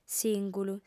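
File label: "sìngulu", female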